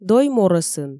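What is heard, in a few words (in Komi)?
"дой морӧсын", Komi, female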